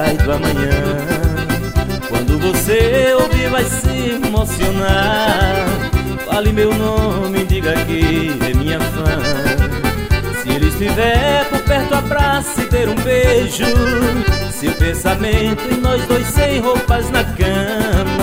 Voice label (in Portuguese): locutor toque essa música